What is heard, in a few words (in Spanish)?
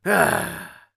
Demoman negative vocalization, es